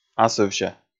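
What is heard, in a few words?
"асывся", Komi, male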